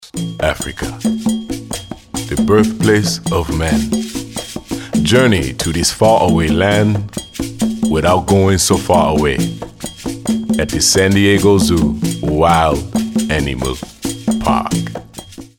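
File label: English US, male, narration african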